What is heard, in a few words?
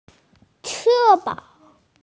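"wing", hearing impaired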